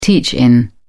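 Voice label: "teach-in", British English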